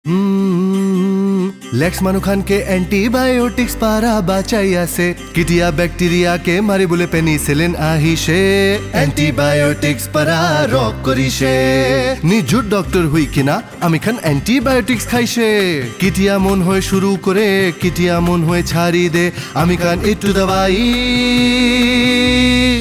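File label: Antibiotic Jingle Nagamese; jingles in 19 languages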